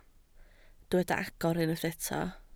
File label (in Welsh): "agor", Welsh